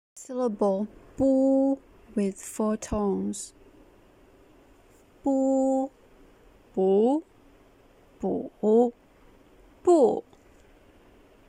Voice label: bu with 4 tones, Mandarin

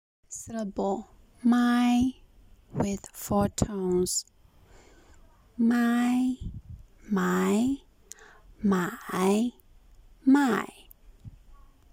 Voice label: "mai" with 4 tones, Mandarin

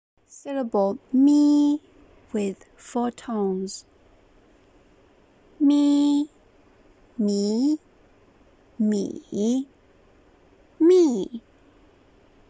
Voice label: "mi" with 4 tones, Mandarin

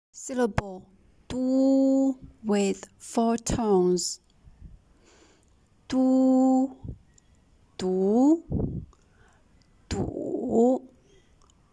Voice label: du with 4 tones, Mandarin

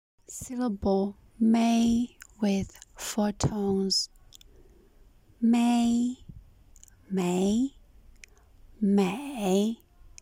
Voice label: mei with 4 tones, Mandarin

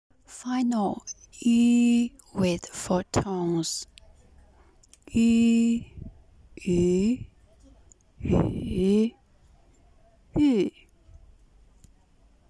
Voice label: "yu ü" with 4 tones, Mandarin

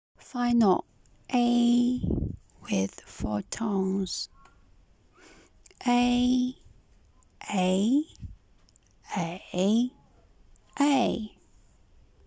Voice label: "ei" with 4 tones, Mandarin

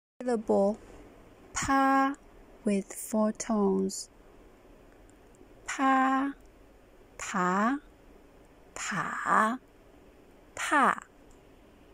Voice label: pa with 4 tones, Mandarin

